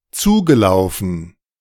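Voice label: "zugelaufen", German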